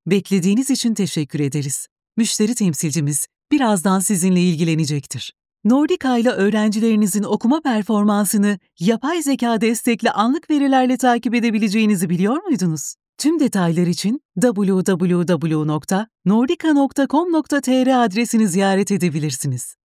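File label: Turkish female IVR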